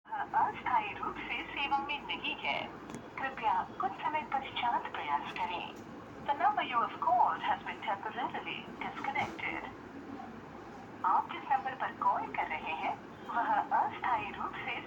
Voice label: call recording